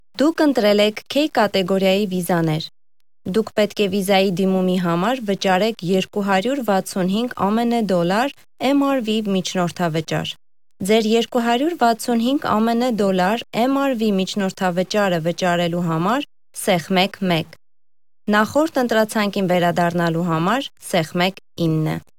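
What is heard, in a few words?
Armenian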